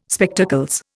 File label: "Spectacles", Indian